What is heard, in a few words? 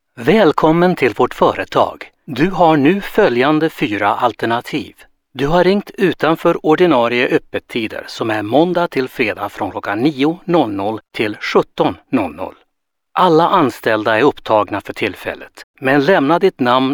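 Swedish male formal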